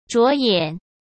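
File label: "着眼", Mandarin Chinese; chinese flashcards; hsk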